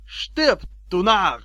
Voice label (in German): Narr